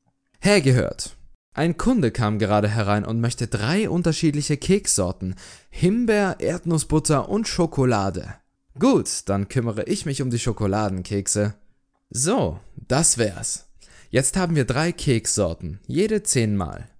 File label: GERMAN